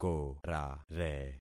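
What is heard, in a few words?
"korare", concatenated syllables; Affixing experiment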